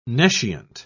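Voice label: "nescient", British English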